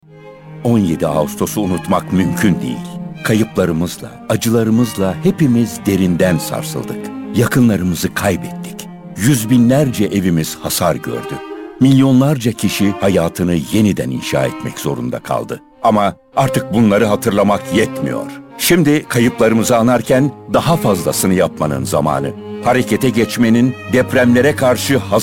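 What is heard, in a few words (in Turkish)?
turkish male commercial; voice demos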